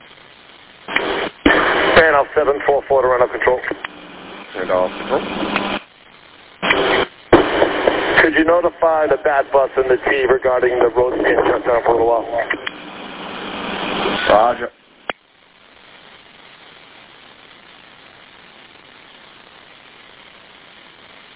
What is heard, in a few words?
Police Radio